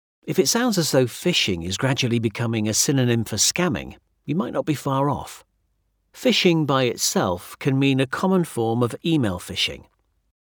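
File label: British English, male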